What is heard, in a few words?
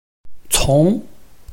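"cong", Chinese, tone 2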